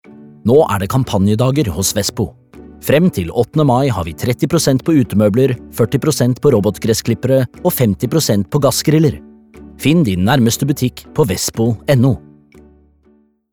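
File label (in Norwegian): Norwegian, advert; reklame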